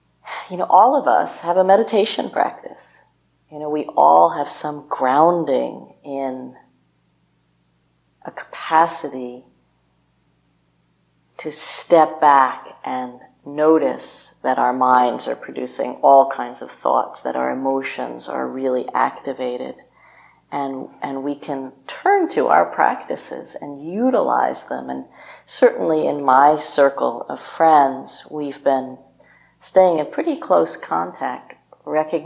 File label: Yoga nidra ocean